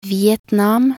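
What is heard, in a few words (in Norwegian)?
"Vietnam", Norwegian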